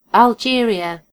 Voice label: "algeria", en GB; gcompris